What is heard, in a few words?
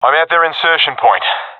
at enemy spawn, professional epic; vo; Source Model Packs; Counter Strike Model Pack; CS2